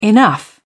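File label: "enough", British English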